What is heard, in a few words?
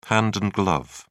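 "hand and glove", British English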